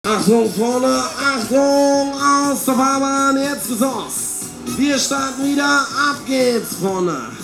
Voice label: "oktober", shouts; Best Service; Hyper Dance

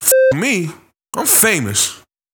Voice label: Vocals

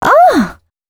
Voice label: female c# 138, Dance Ultra Voice; Mutekki Media

